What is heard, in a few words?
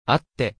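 "会って", Japanese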